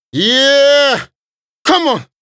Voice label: Rap Do It Adlip; Hip-Hop